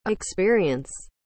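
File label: "experience", English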